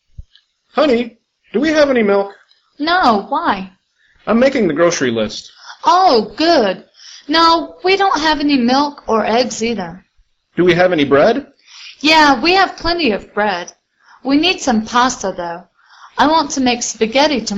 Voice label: Dialog